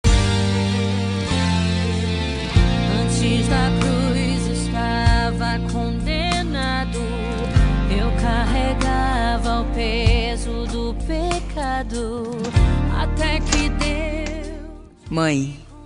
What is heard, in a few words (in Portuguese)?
evangelica fem; dia das maes para mae evangelica voz feminina